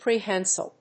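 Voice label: "prehensile", English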